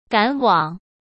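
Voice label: "赶往", Mandarin Chinese; hsk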